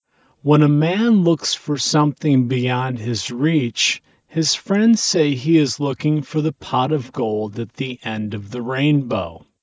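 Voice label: male speech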